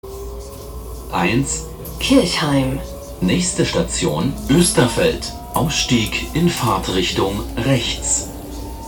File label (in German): ansage; oesterfeld